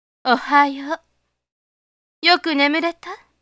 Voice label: lady; お姉様系; 朝の挨拶